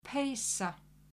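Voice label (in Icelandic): "peysa", Icelandic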